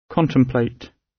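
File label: "contemplate", British; Space, the planets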